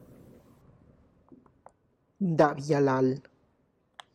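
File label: Tlacochahuaya Zapotec; sandals from Yalalag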